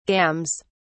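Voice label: "gams", English, British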